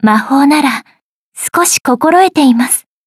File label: upgrade, ja; Cookie Run